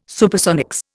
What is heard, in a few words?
"Supersonics", Indian